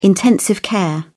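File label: "intensive care", British English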